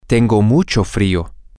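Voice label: I am very cold, Spanish, male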